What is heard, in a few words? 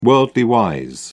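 "worldly-wise", British English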